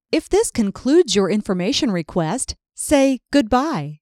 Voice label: IVR, Goodbye